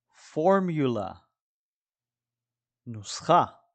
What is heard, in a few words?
"formula" – "נוסחה"